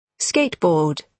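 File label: "skateboard", British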